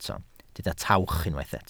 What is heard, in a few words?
"tawch", Welsh